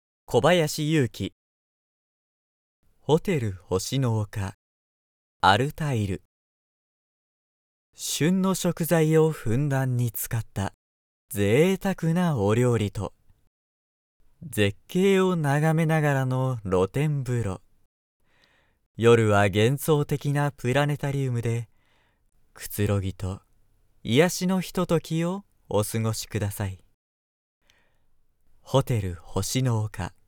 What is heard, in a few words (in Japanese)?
ボイスサンプル ナレーション ホテルCM; 【宅録】